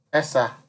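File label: "esa", Nigerian Pidgin, male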